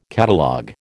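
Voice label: "catalogue", American English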